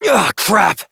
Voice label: Fail Interjections, Adult, en; Warframe; Duviri; Conservation

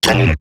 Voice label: Pain, Robot Large; Warframe; Eximus Corpus Robotic Large; Battle Barks

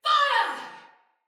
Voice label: Shoot Arrow, Soldier Female; Battle; Barks; Dialog; Sinecure Soldier Female A

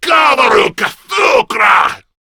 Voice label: Cover Me, Male; Warframe; Grineer Male B; Battle Barks

